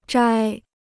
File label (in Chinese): "摘", Chinese